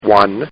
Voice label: Man Says, Talking; Talking Sound Effects